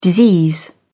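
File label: "disease", British English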